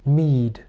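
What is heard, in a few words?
"mead", English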